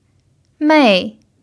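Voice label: "mei" fourth tone, chinese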